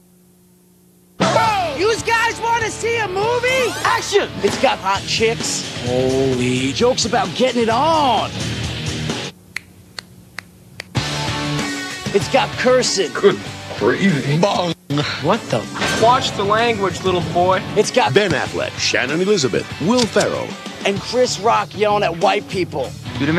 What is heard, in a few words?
commercial